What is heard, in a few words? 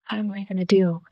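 Voice Acting es, female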